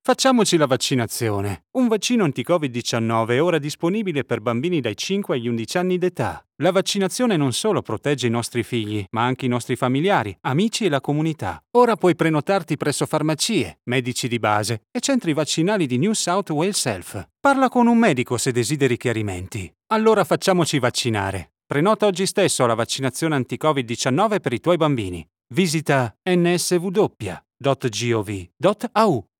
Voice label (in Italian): Italian others